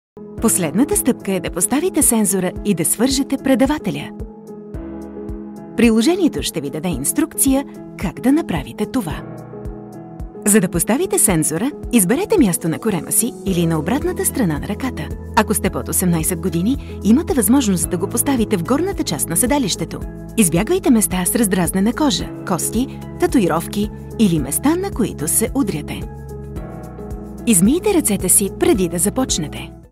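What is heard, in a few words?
Bulgarian, female, Medical Narration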